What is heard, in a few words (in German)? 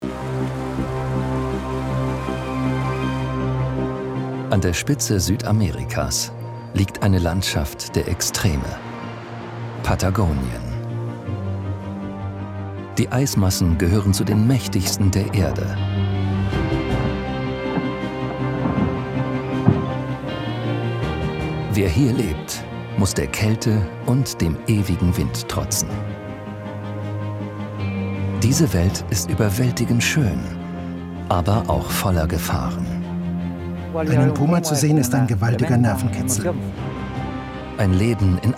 Doku Trailer, DE; NDR Patagonia Trailer; german voice actor